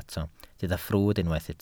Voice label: "ffrwd" Welsh

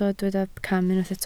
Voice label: "cam", Welsh

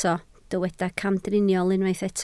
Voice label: "camdriniol", Welsh

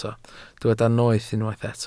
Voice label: "noeth" Welsh

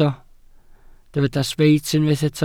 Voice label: "sbeit", Welsh

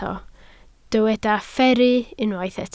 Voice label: "fferru", Welsh

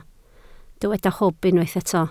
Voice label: "hwb", Welsh

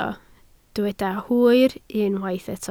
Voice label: "hwyr" Welsh

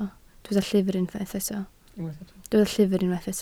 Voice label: "llyfr", Welsh

